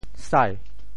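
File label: "sai2", Teochew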